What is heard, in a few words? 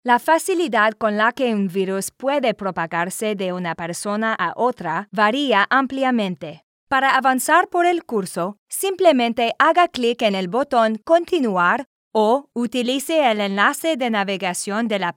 F Spanish Narration